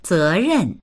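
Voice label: "ze2ren4", Mandarin Chinese; 责任; zérèn; 責任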